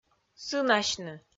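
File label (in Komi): "сынасьны", Komi, female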